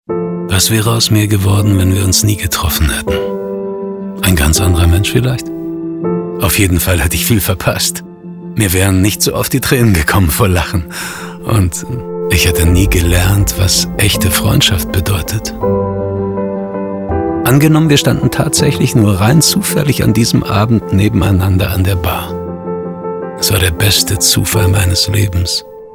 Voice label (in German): Full Mix Zufall, Tale (Erzählung), German